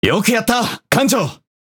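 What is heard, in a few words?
Speech Quick Commands Good Game Ship, Japanese; World Of Warships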